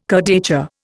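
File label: "kurdaitcha", Indian English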